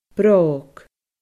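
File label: "bròg", Scottish Gaelic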